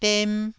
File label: "dem1", Taiwanese syllable